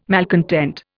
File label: "malcontent", Indian